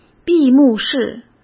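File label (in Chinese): "闭幕式" Mandarin; hsk; chinese flashcards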